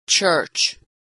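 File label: "church", American English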